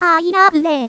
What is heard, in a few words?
cheer, voice commands; svencoop; Miku